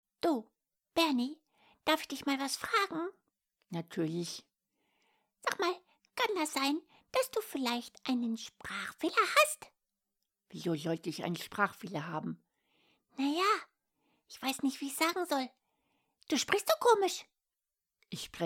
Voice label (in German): Trickstimmen; hoerproben hoerbuchsprecherin